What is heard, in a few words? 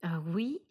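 "oui", French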